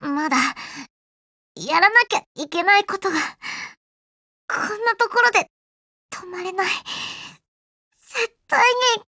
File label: Fail JP; Colphne